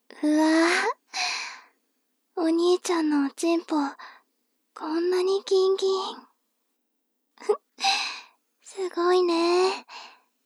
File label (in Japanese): 妹 台詞